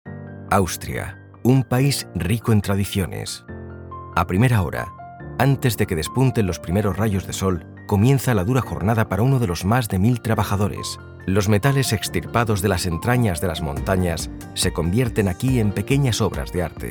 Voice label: spanish documentaries